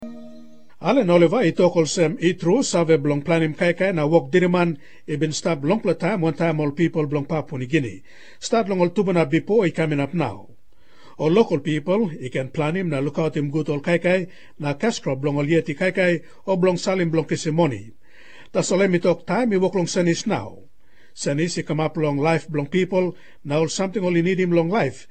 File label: Tok Pisin; Mama Graun